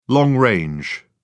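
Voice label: "long-range", British English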